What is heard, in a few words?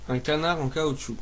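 rubberduck desc, French; household; stamps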